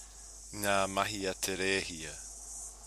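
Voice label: "nga mahi a te rehia", Māori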